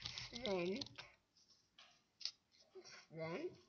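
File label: "cent", spoken word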